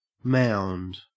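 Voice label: "mound", Australian English; pronunciations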